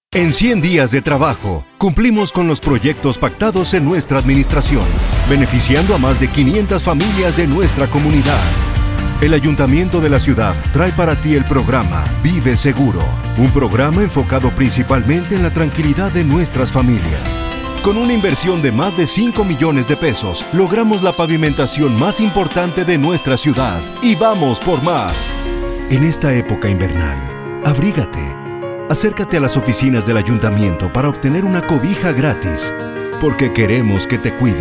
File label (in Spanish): VOZ INSTITUCIONAL AYUNTAMIENTOS